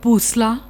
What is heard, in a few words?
"bhoosla", Punjabi; ਭੂਸਲਾ